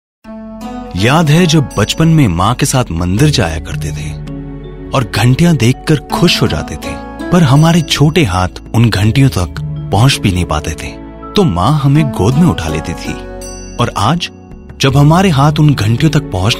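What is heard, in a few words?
Hindi voice-over with music, male voice; Kumbh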